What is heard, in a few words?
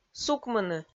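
"сукмыны", Komi-Zyrian, female; audio dict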